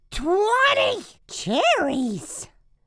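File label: "20 cherries", English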